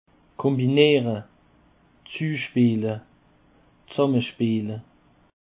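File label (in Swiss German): "kombinere", Alsatian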